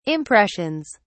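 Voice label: "impressions", British English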